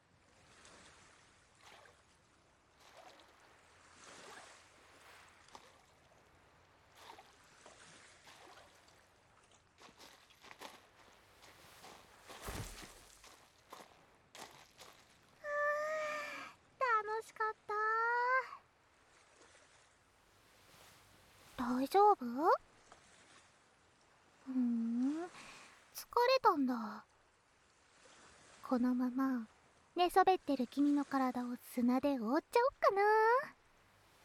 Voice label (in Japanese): 幼馴染ちゃん ASMR